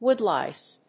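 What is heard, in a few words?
"Woodlice", English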